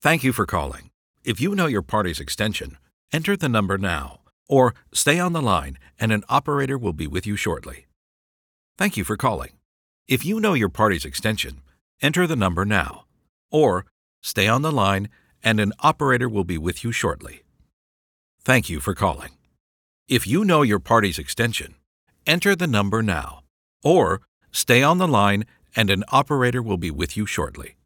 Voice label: english canadian ivr